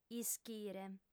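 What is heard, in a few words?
"ischire", Sardinian, female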